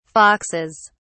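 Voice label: "foxes", English, British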